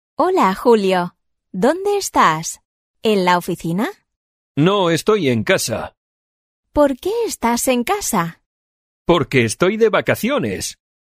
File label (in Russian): urok dialog